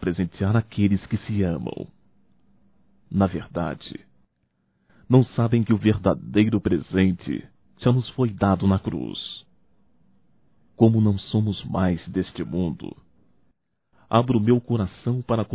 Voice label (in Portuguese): NATAL ROMANTICO M; masc; Telemensagens 2017; Natal & Ano Novo